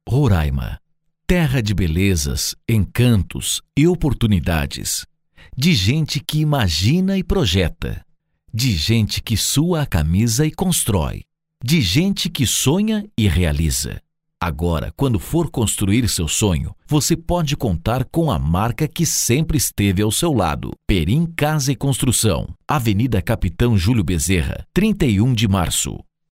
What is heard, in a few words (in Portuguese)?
PADRÃO SUAVE